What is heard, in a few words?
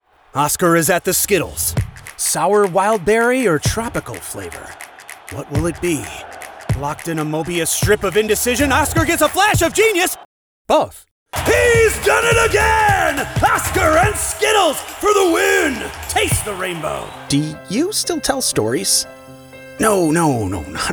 Commercial